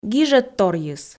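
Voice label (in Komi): "гижӧдторйыс", Komi, female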